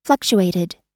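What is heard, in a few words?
"fluctuated", American English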